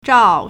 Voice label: "zhao4", Chinese

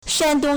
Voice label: "shan1dong1", Chinese

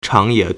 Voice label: "chang2ye3", Chinese

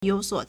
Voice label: "you3 suo3", Chinese

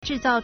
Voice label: "zhi4 zao4", Chinese

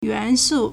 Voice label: "yuan2su4", Chinese

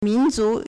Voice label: "min2zu2", Chinese

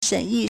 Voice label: "shen3yi4", Chinese

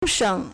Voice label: "sheng3", Chinese

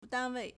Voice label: "dan1 wei4", Chinese

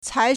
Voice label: "cai2", Chinese

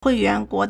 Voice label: "hui4 yuan2 guo2", Chinese

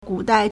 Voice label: "gu3dai4", Chinese

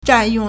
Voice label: "zhan4yong4", Chinese

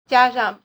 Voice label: "jia1 shang4", Chinese

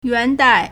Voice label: "yuan2dai4", Chinese

